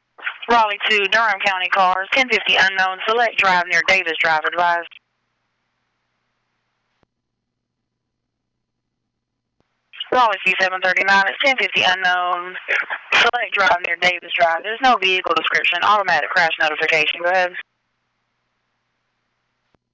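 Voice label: P25 dispatch radio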